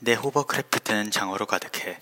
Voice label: hovercraft, Korean; 내 호버크래프트는 장어로 가득해; (nae hobeokeulaepeuteuneun jang-eolo gadeughae)

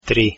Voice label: "3", Croatian

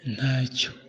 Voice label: you're welcome, kinyarwanda

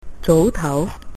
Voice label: goodnight, cantonese

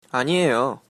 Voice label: you're welcome, korean

